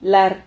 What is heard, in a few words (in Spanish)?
"lar", Silabas